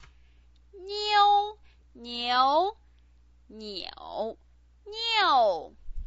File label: "niu", Chinese pinyin